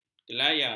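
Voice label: "kelayar", Malay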